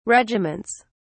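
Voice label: "regiments", British English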